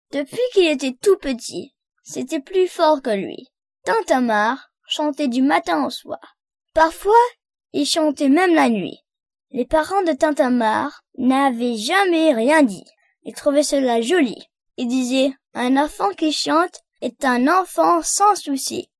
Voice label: french child male